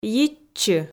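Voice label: "йитчы", Komi, female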